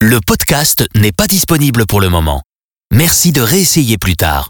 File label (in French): podcast pas dispo; radioscoop